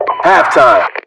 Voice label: halftime, US voiceovers

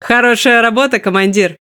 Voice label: Speech Quick Commands Good Game

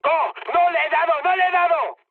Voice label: bomb failure, mood high, speech; War Thunder

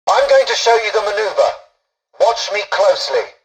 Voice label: tutorial dialogue, english; War Thunder

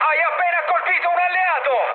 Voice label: player killed ally mood high, speech it; War Thunder

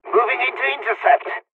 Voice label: dialogs polish; War Thunder

